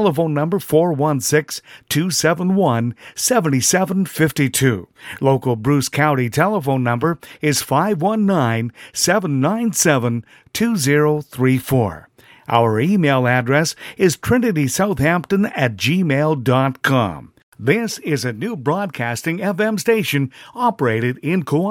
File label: Tourism Radio; Southamptom